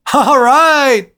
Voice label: cheer, english; Quake 4 Alpha; marine3